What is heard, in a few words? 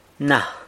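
"na", San Lucas Quiavini Zapotec; now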